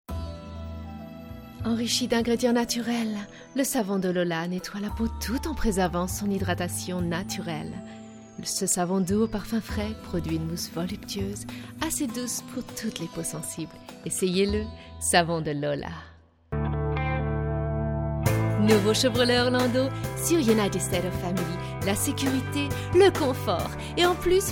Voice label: languages and accents female french commercial